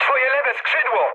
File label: left wing mood med, speech pol; War Thunder